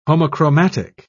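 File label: "homochromatic", British English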